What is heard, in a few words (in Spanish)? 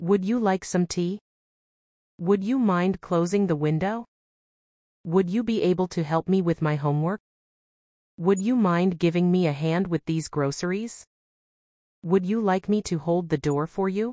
ejemplos would cortesia ingles; uso de would para cortesia